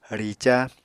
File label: "rica", apatani